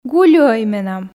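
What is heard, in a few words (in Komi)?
Komi, гулюӧй менам, female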